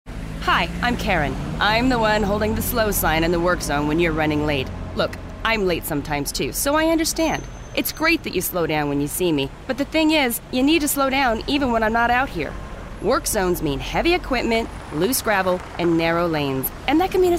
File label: Voiceover; ODOT; For Your Sake